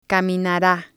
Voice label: "caminara", spanish

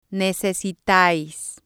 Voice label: "necesitais", spanish

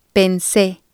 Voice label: "pense", spanish

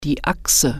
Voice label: "axle", german

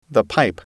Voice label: "pipe", english

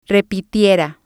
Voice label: "repetiera", spanish

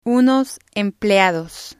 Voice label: unos empleados, Spanish

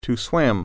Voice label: "swim", English

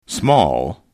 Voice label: "small", English

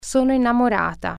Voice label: i am in love female, italian

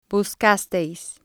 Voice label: "buscasteis", Spanish

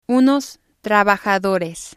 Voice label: unos trabajadores, Spanish

